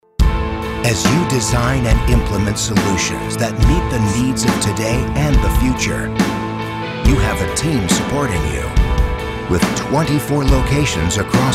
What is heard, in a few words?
Inspirational